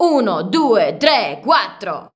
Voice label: "italian", shouts; Best Service; Hyper Dance